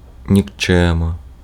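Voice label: Ukrainian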